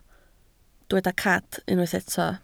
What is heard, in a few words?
"cad", Welsh